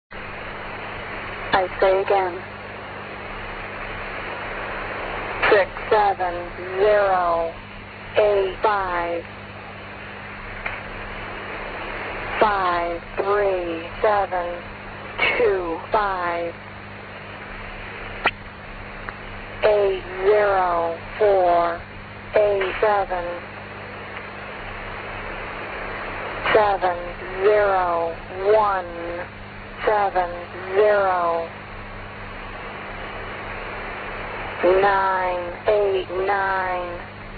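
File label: slow english female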